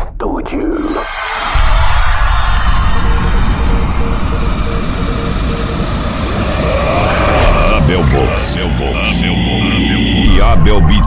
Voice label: abertura pronta para dj ou equipe voz

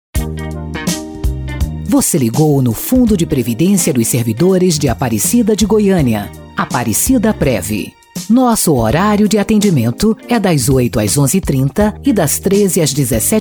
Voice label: Atendimento Noturno Voz BR; AparecidaPrev

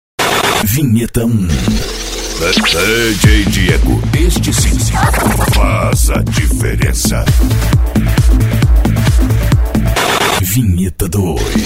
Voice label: vinhetas dj

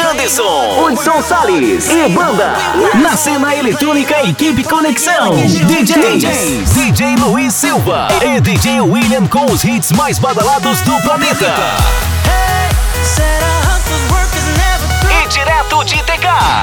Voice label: CHAMADA DE FESTA HALLOWEEN

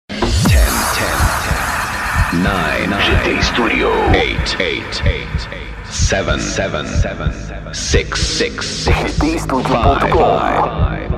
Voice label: Vinheta de Abertura para Dj Festa Show

